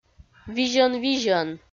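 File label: "визьӧн-визьӧн", Komi, female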